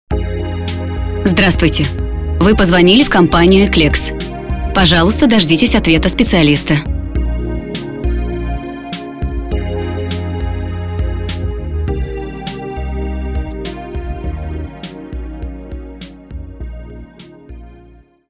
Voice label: IVR voice